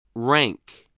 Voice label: "rank", english